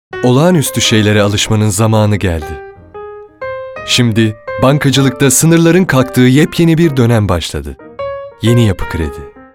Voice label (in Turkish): sicak samimi